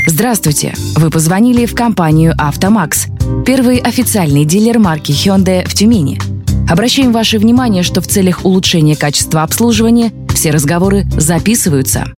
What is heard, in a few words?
IVR